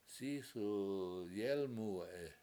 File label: "sIs1w yElmuwe7i", washo; bird flew under